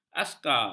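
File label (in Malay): "askar", Malay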